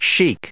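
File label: "chic", US English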